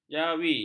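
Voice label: "jawi", Malay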